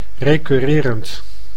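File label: "recurrerend", Dutch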